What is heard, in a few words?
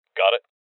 Confirmation Male; rebel cops 2019 gamerip; Voices